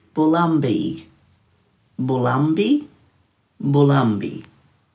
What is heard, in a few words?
"bulambii-", Dhurga